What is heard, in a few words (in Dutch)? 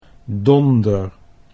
"donder", Dutch; 'thunder'